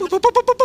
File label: woo bup bup bup bup, gibbrish; Voice